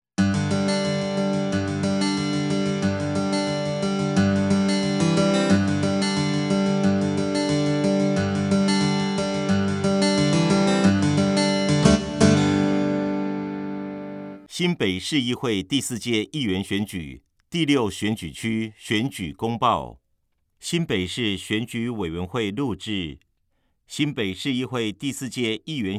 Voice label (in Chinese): 國語; 新北市; 有聲公報; 市議員